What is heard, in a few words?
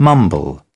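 "mumble", British English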